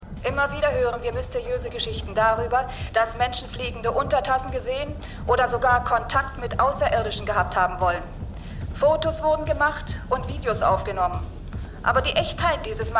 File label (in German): TV Sprecherin; PSI Factor Es geschieht jeden Tag